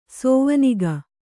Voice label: "ಸೋವನಿಗ", Kannada

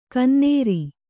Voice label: "ಗೊನ್ನೂರು", Kannada

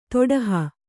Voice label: "ತೊಡಹ", Kannada

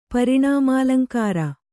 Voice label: "ಪರಿಣಾಮಾಲಂಕಾರ", Kannada

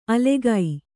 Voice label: "ಅಲೆಗೈ", Kannada

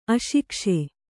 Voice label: "ಅಶಿಕ್ಷೆ", kannada

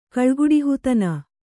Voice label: "ಕಳ್ಗುಡುಹಿತನ", Kannada

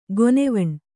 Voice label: "ಗೊನೆವಣ್", kannada

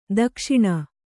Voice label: "ದಕ್ಷಿಣ", Kannada; dakṣiṇa

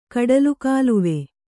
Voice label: "ಕಡಲುಗಾಲುವೆ", Kannada

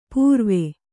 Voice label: "ಪುರಾವೆ", Kannada

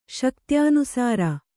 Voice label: "ಶಕ್ತ್ಯನುಸಾರ", kannada

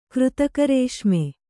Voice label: "ಕೃತಕರೇಷ್ಮೆ", Kannada; křtaka rēṣme; noun

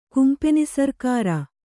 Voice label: "ಕುಂಪೆನಿಸರ್ಕಾರ", Kannada

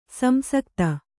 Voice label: "ಸಂಸಕ್ತಿ", kannada

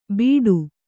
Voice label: "ಬಿಡು", Kannada

